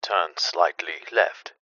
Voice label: technology sat nav male voice english turn slightly left; zapsplat